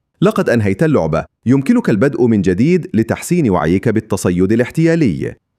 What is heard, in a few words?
Game End, Narrations, Arabic